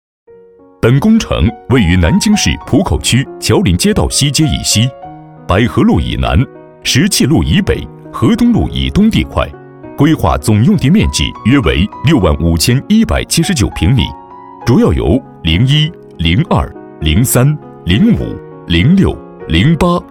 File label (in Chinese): 专题 工程 汇报 施工 演示